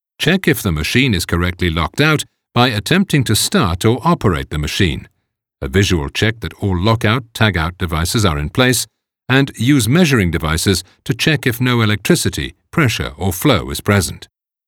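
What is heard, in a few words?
English, male, elearning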